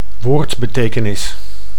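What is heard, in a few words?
"woordbetekenis", Dutch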